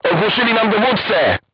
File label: voice message commander contused, crew tanks polish